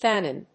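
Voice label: "FANON", English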